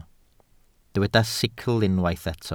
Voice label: "sicl", welsh

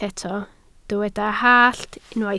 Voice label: "hallt", Welsh

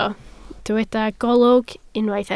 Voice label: "golwg", Welsh

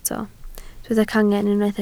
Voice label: "cangen", Welsh

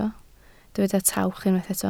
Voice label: "tawch", Welsh

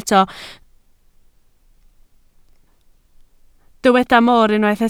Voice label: "moor", Welsh

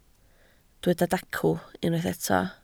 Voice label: "dacw", Welsh

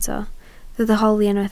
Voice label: "holi", Welsh

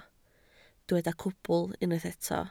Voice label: "cwbl", Welsh

